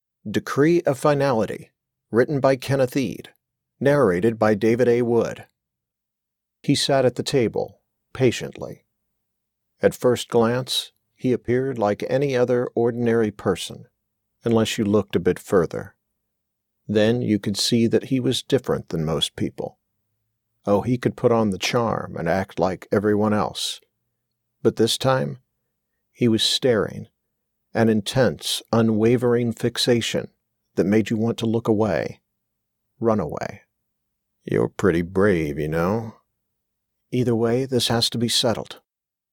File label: Audiobooks Fiction